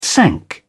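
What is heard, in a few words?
"sank", British English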